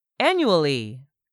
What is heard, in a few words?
"ANNUALLY", english; 3000 words